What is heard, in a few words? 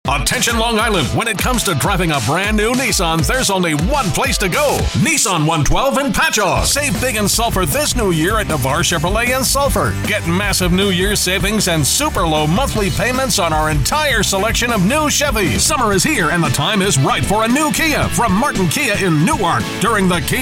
car spots, car commercial voiceover